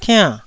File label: "tiann4", Taiwanese